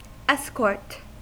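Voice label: "escort", US English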